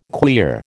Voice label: "queer", American